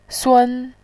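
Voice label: "sun", Mandarin Chinese, first tone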